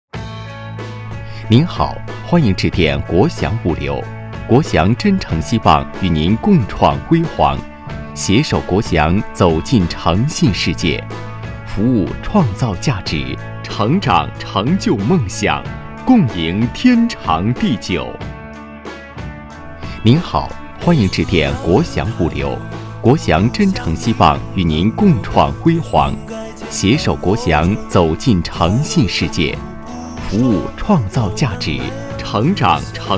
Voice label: 男 彩铃; 物流公司彩铃

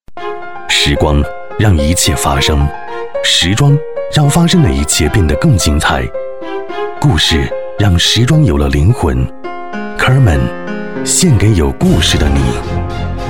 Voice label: 男 广告 磁性优雅

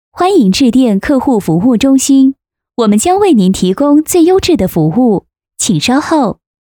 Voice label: 女4-彩铃-服务彩铃, 女

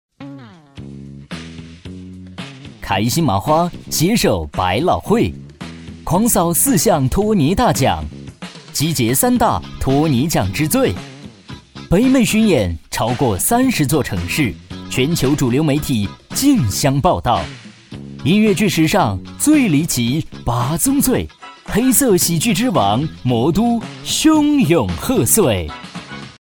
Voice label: 男 片花

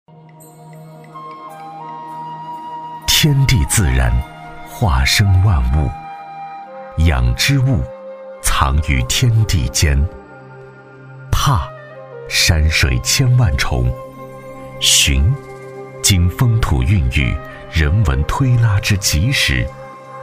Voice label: 男 专题 厚重 人文 底蕴; 兴文山地乌骨鸡

